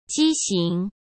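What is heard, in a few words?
"畸形", Mandarin Chinese; chinese-flashcards; hsk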